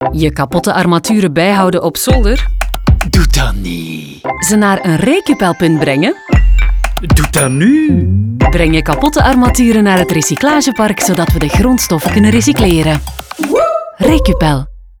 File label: radio vl; sonhouse; recupel; doetanie; armaturen